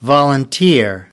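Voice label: "volunteer", American English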